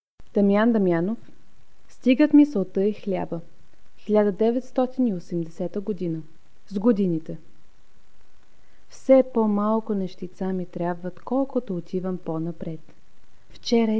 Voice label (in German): Bulgarisch Literatur Gedicht; Damjan Damjanov